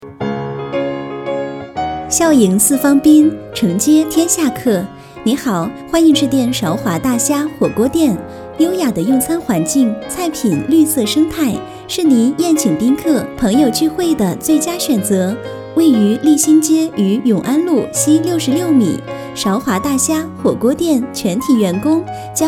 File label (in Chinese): 彩铃清新